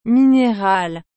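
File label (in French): "minérale", French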